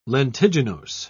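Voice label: "lentiginose", British English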